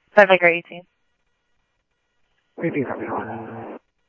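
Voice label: Simulcast police department, scanner audio trunk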